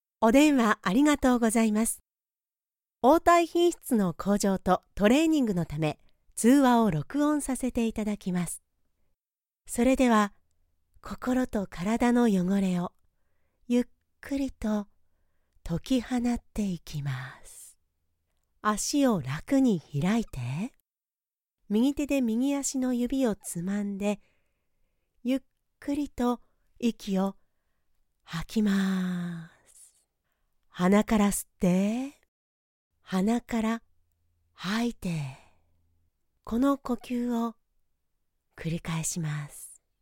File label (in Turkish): Japonca Kadin; seslendirme